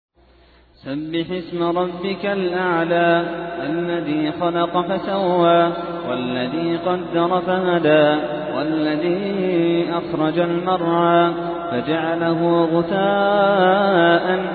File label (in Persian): ترتیل سوره اعلی